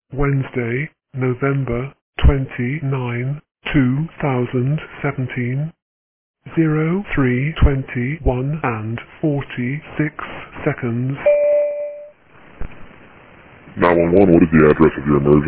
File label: 911 call; Raven Rd; RALEIGH